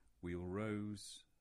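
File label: "Wheal Rose", English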